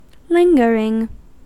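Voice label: "lingering", US English; pronunciations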